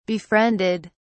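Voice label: "befriended", en, uk British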